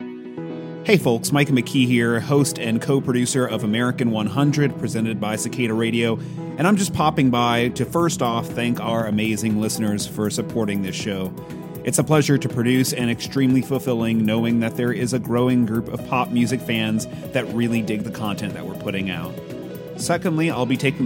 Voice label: SEASON BREAK ANNOUNCEMENT

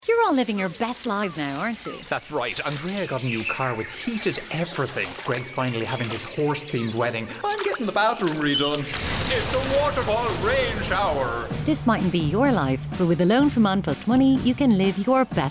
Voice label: Loans Radio; An Post

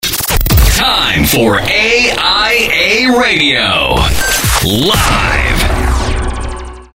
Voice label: Radio stinger Voice Over; AIA RADIO

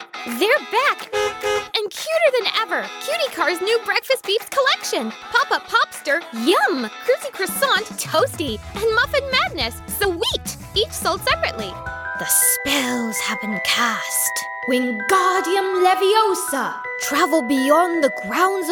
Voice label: COMMERCIAL

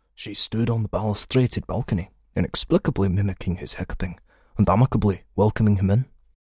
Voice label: Scotland Edinburgh Older

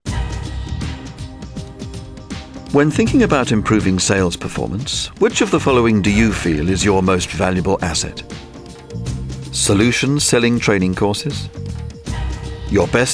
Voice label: corporate; Cotoco

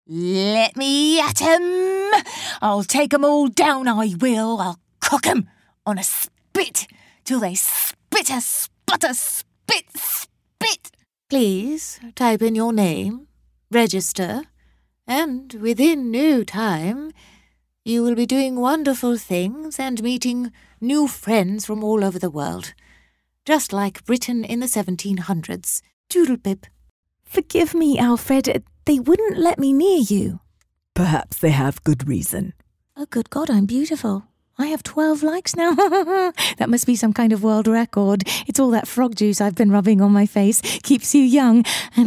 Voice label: Character multiple accents

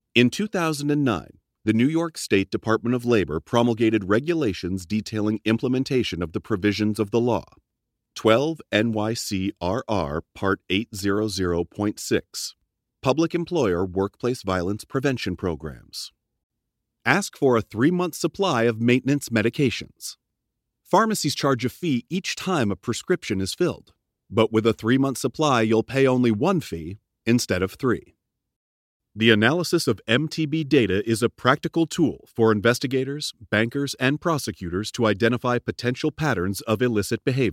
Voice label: eLearning

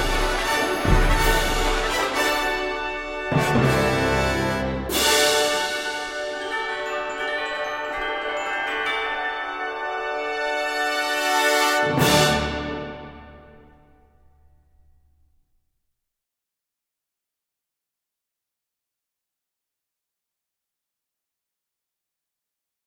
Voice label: Announcer Theme Park Fireworks

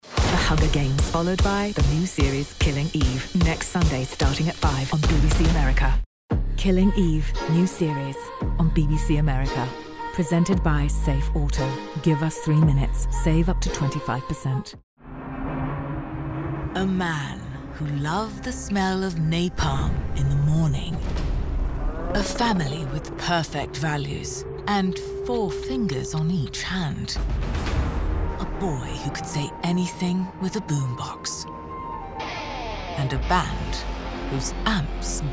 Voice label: British Promo

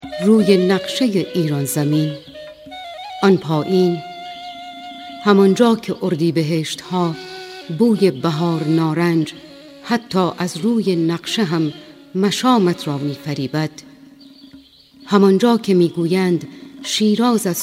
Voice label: soft reading

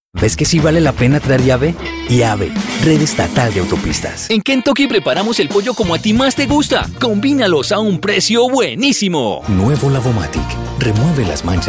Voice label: Commercial

